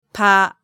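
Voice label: "pa", Japanese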